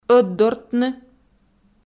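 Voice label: "ӧтдортны", Komi, female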